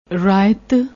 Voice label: "rayait", Punjabi